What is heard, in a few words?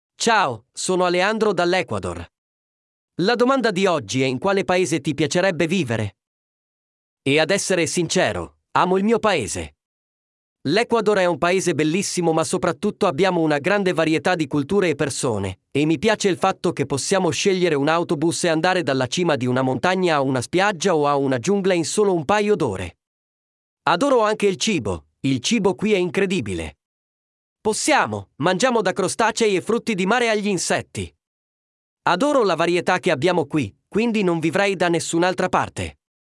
Audiobook Voice